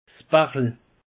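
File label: "bachel", Alsatian, Bas Rhin; peche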